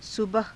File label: "subaha", hindi; सुबह; [副]朝